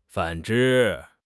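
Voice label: "反之", Chinese; HSK6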